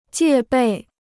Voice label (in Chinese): "戒备", Chinese